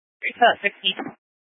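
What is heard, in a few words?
Incident Tac 3, Scanner audio recording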